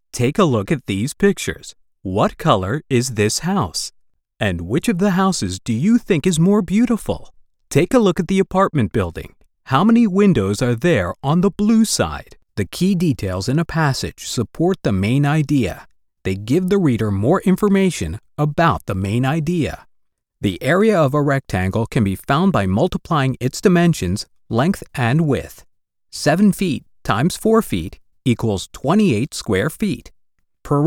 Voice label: eLearning